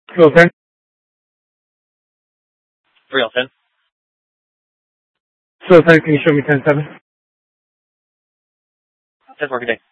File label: Dispatch; Scottsdale